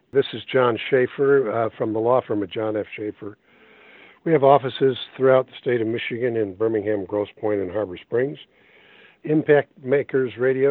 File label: Testimonial; Impact Makers Radio